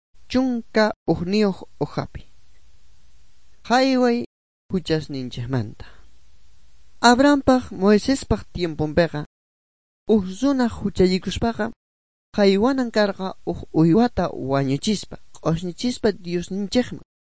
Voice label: good news quechua bolivian sucre; bible